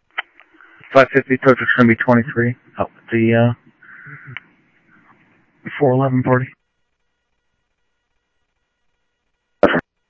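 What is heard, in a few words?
Lakes Sheriff Lake Patrol, scanner audio trunk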